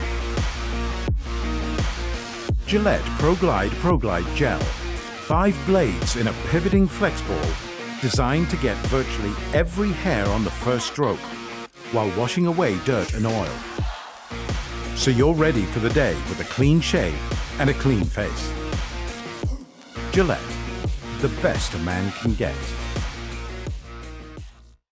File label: male British voiceover commercial mens shaver